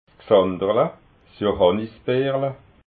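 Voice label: "xanderla", Alsatian, Haut Rhin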